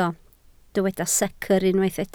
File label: "secr", Welsh